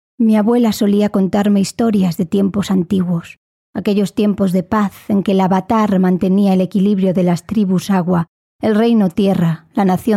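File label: Spanish, female, Spanish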